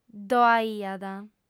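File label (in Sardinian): "ddo'aiat", female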